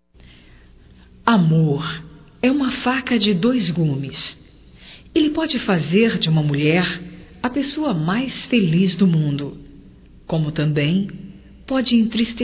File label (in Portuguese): PERDAO ROMÂNTICA, fem; Românticas